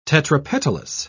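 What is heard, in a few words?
"tetrapetalous", English, British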